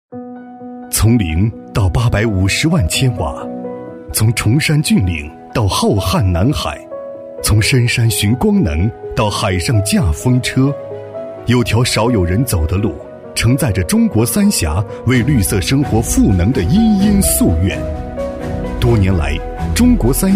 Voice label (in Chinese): 男 企业汇报片 品质感; 《电网系列·绿色崛起》